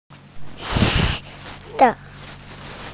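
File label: "shang4", Mandarin Chinese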